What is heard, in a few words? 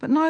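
"buQ now", Northern Irish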